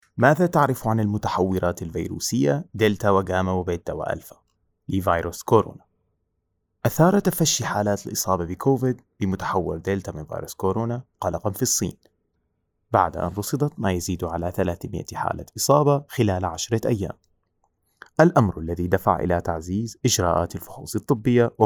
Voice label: Arabic M